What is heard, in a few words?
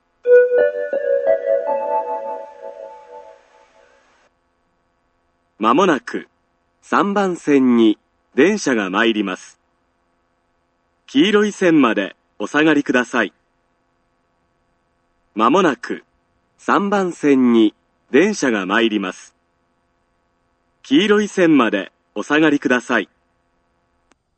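platform 3 approaching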